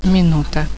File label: "Minute", speak